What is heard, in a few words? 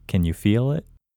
Locate IN Male